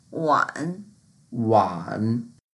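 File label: "wan3", Mandarin Chinese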